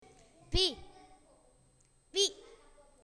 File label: pine tree, SPANISH (Castellano)